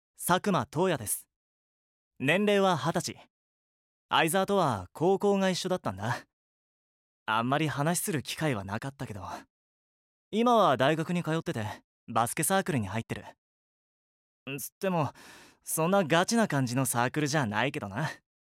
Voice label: WEB Voice introduction